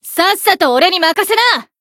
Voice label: chant, jp; kid

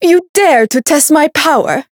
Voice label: Voice variable chant; herena

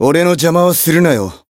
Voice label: variable chant, jp

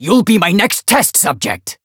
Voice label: Voice variable chant

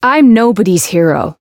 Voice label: Voice chant

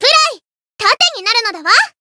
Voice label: encount fear jp; thillelille

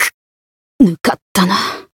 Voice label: Voice win barely jp

